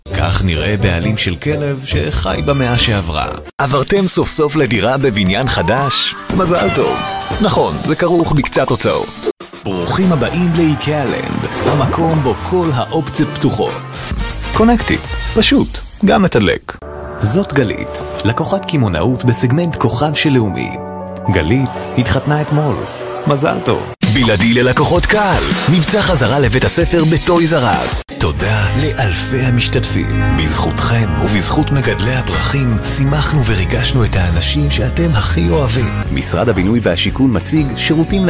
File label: Commercial Mix